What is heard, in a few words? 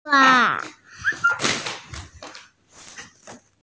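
"shuo1hua4", Mandarin Chinese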